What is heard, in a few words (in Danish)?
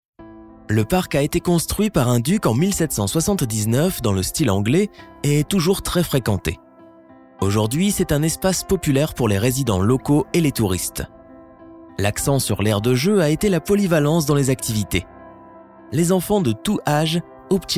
Fransk